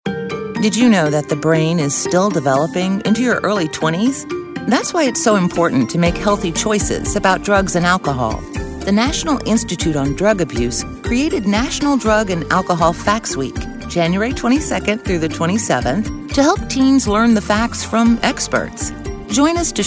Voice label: informative